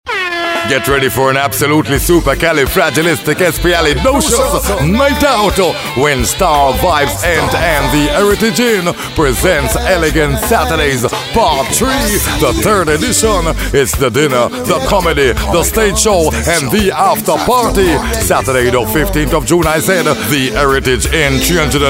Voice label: RADIO ADVERT